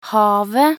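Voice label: "havet", Norwegian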